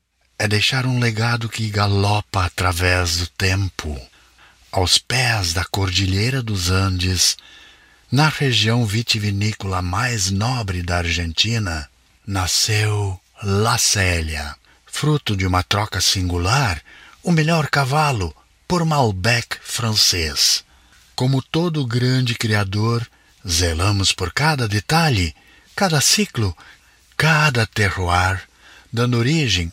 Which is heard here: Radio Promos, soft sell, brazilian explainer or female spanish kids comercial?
brazilian explainer